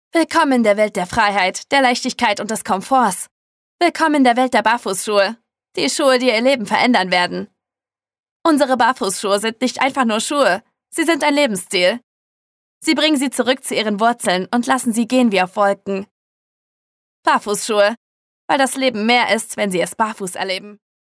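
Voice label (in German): neue Hoerprobe Werbung, Sprecherin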